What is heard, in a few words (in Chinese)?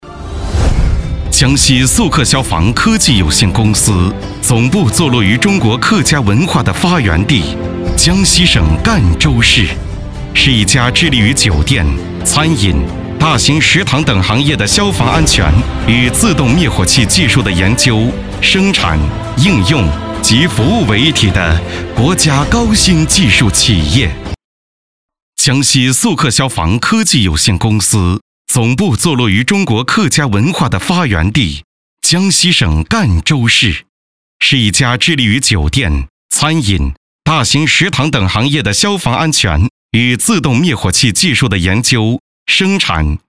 专题 消防 大气活力; 江西肃客消防